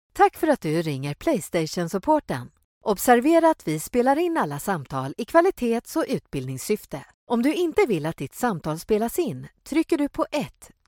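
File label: swedish interactive voice response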